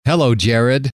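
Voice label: hello, male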